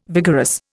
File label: "vigorous", Indian